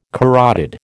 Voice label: "carotid", American

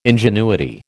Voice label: "ingenuity", American

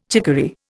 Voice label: "chicory", Indian

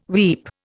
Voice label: "weep", Indian

